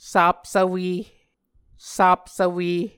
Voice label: "sapsvwe", Muscogee

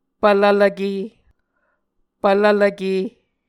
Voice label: "pvlvlvke", Muscogee

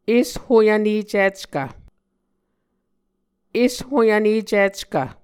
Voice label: "eshoyvnecickv", Muscogee

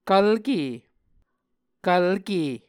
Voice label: "kvlke", Muscogee

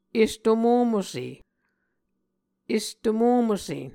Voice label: "estomomose", Muscogee

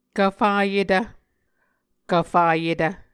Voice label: "kvfayetv", Muscogee

